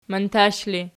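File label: "mantash" ergative, Archi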